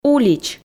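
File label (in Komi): "улич", Komi, female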